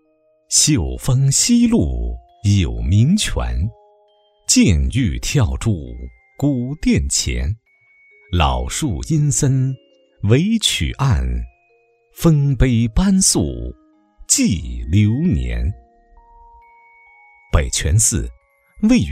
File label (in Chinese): 男 纪录片; 北泉寺